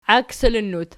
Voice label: "iaksilinnut", Archi